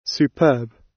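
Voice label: "superb", British English; Time, history; Thời gian, lịch sử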